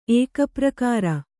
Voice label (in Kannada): "ಏಕಪ್ರಕಾರ", Kannada